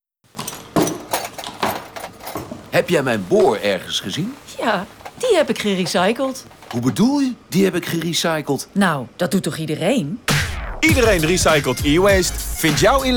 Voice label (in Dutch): Radiocommercial